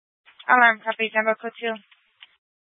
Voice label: Fire Departments Mesa Simulcast; TOPAZ

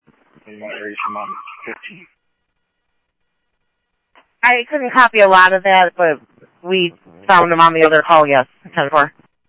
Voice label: Police, scanner audio trunk

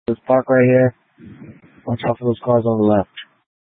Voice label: Light Rail Emergency, scanner

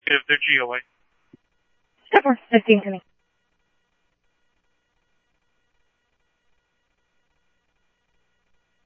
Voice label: Police, scanner; H-Scottsdale; ScottsdalePolice

